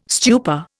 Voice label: "stupor", Indian